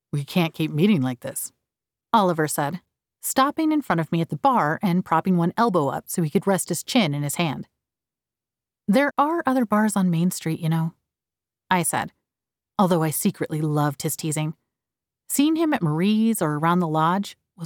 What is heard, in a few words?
RomCom Female Doing Male Voice